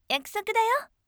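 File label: voice yakusokudayo bright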